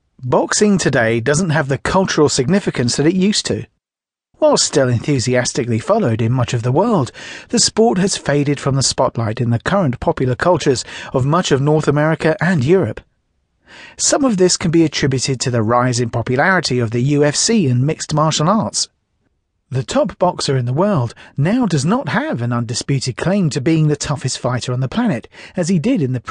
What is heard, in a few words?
audiobook narrated